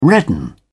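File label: "redden", English, British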